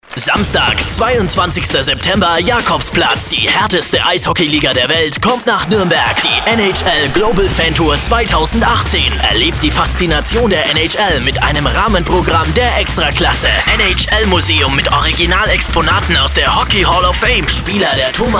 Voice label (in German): Radiospot; NHL Global Tour